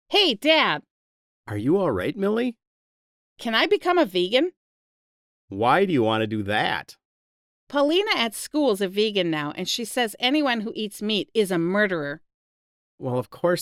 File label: Conversation; Real Heroes 4